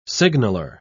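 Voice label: "signaler", English, British English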